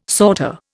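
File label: "sauté", Indian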